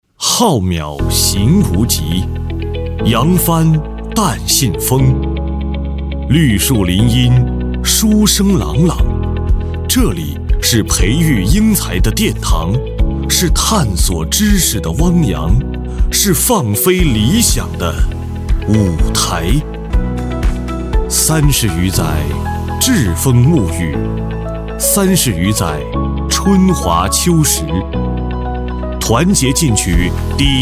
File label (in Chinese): 大气磅礴 招生宣传片; 北方民族大学